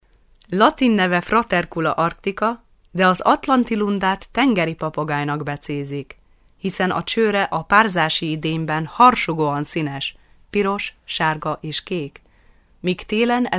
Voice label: Hungarian F docu; voice over